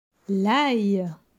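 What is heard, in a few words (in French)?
"L ail", French; vocabulaire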